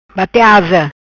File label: "Bate Asa", Macanese